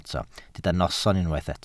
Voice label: "noson", Welsh

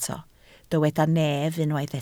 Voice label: "nef", Welsh

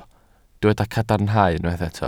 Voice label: "cadarnhadau", Welsh

